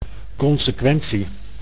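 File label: "consequentie", Dutch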